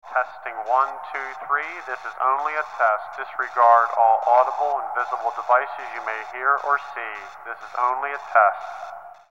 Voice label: Test Announce, Pa Test Announcement; Pa Announcement With Long Reverb And Static , " This Is Only A Test , Disregard All Audibl; Voice; PA Announcements